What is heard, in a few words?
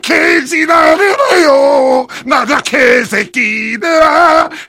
heavy cheers